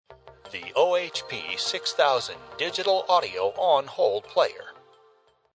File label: players nar